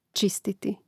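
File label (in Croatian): "čistiti", Hrvatski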